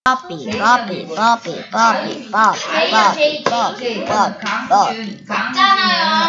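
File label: "puppy", spoken word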